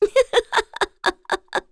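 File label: Vox Happy, Korean; FreyB; kingsraid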